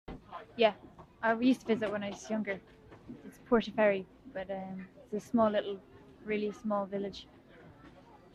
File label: Irish English Ferry Uni Student F